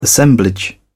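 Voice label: "assemblage", British English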